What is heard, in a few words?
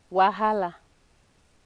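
"wahala", Nigerian Pidgin, female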